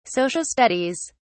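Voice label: "social studies", British English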